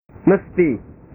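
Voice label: "mesti", Indonesian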